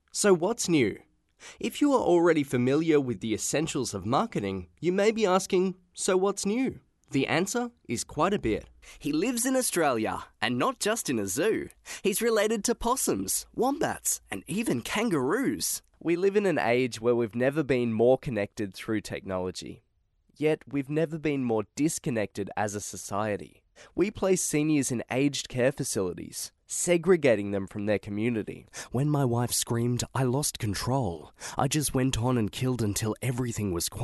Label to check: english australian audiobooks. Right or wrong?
Right